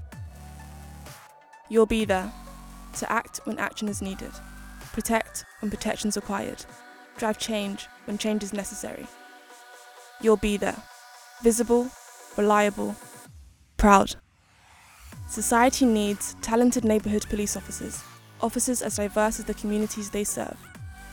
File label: radio; PN neighbourhoods